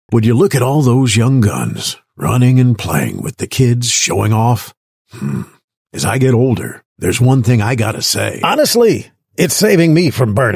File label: US English Character